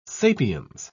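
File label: "sapiens", British English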